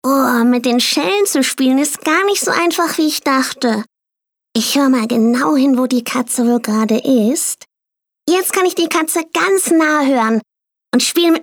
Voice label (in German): Kinderstimme Hoerspiel; Floetelinchen